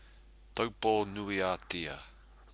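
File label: "taupo nui a tia", Māori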